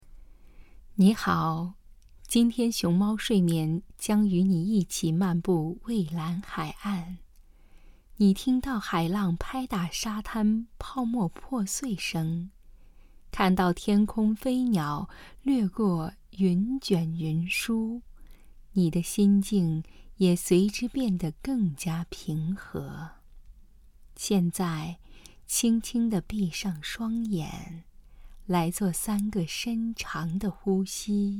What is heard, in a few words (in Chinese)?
睡眠 试音